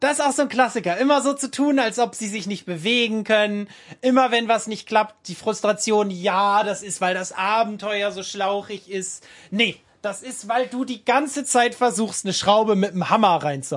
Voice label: frustration; spielleiter

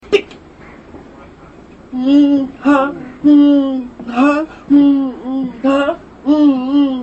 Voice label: lustig verruckter mann im bus gefilmt

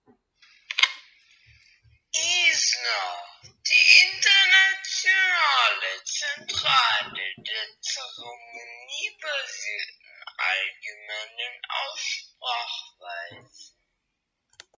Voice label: werbespruch zombie

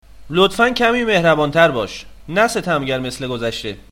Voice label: peasant male; stronghold

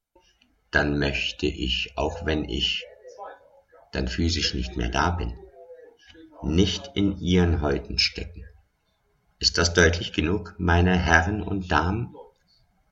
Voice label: ansage

